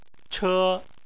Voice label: "che1", chinese